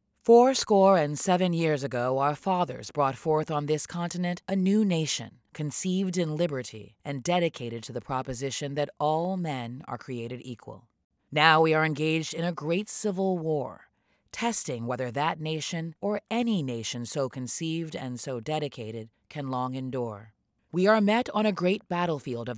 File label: Narration Gettysburg Address